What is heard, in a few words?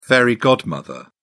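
"fairy godmother", British English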